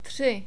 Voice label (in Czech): "tri", Czech; numbers